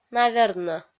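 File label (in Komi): "навернӧ", Komi, female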